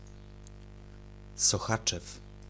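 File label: "Sochaczew", Polish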